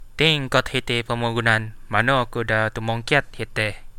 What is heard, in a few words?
stop the world, Central Dusun